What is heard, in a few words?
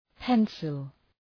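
"pencil", English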